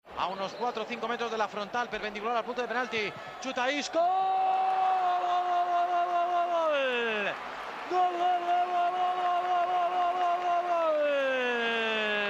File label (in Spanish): NARRACION GOL; telemadrid; ISCO